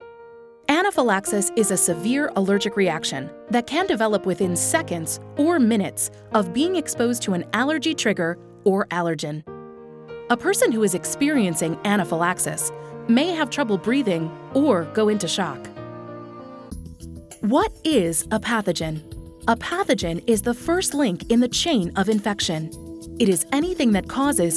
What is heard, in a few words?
Medical Narration